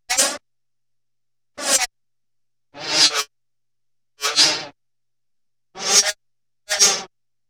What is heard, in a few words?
Cyber Talk Assortment, Sci-Fi; Sound Design